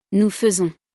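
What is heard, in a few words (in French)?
"nous faisons", French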